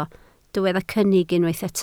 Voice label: "cynnig", Welsh